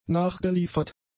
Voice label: "nachgeliefert", German; adjectives